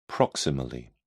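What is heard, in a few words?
"proximally", British English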